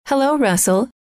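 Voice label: hello, female; trainer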